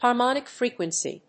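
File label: "harmonic frequency", English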